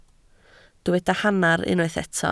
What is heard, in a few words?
"hanner", Welsh